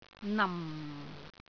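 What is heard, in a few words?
"nam4", Cantonese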